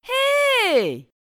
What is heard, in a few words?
bonjour, voix